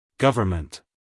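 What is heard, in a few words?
"government", American English, male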